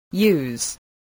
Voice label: "use", English